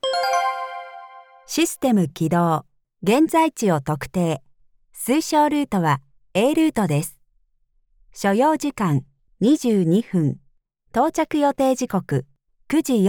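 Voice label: Voice Assistant, Voice Over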